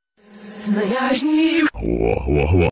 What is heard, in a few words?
green giant reversed; away green